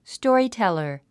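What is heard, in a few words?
"storyteller", 英単語 発音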